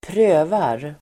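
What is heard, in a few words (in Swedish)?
"prövar", Swedish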